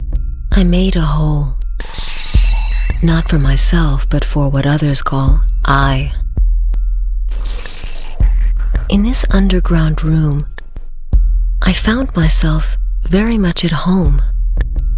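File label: US English, Fremdtake Poetisch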